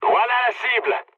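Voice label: near waypoint, mood medium, French; War Thunder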